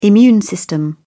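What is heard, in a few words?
"immune system", British English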